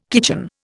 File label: "kitchen", Indian